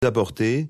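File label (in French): "apporter", French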